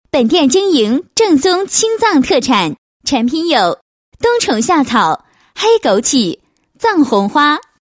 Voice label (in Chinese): 女 宣传广告 本店经营正宗青藏特产